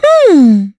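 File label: Vox Think, voices heroes English; kingsraid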